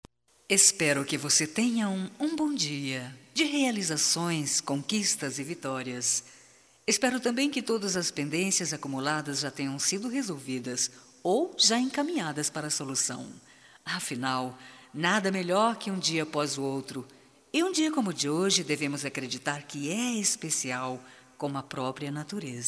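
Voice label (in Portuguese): BOA SORTE, female, Telemensagens, Só Voz; Telemensagens 2017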